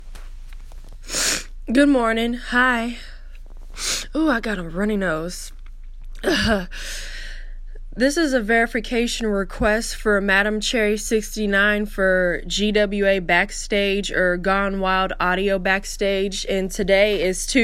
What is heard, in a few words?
Verification, Female; GWA Backstage Verification